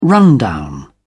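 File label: "rundown", British English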